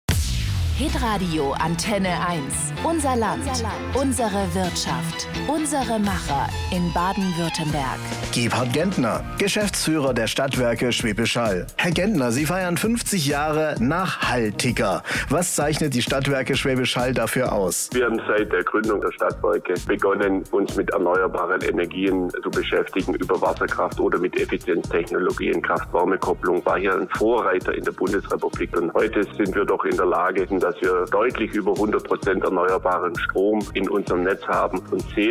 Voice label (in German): Radiowerbung; Stadtwerke SHA; Infomercial; ULUW 2021; 50 Jahre nachHALLtiger